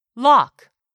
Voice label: "lock", English; 3000 words